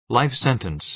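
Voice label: "life sentence", American English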